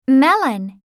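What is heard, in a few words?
"melon", English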